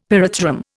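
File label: "pyrethrum", Indian English; Dictionary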